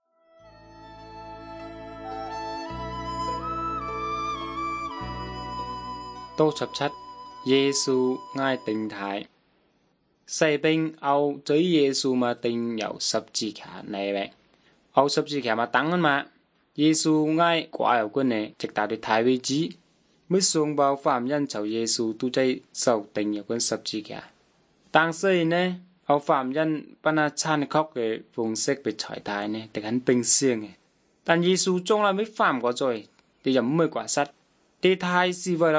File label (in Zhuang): good news zhuang nanning fushu; zhuang yongbei; bible